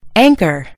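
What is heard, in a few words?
"anchor", American English; gcompris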